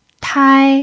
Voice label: "tai1", pinyin

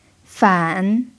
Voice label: "fan3", Mandarin pinyin

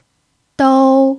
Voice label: "dou1", pinyin

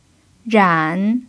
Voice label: "ran3", pinyin

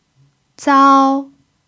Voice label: "zao1", Mandarin pinyin

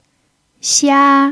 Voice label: "xia1", Mandarin pinyin

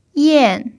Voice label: "yan4", Mandarin pinyin

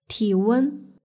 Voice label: "tiwen", Mandarin; kanyisheng

